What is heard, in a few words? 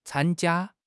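"can1 jia1", Chinese; HSK3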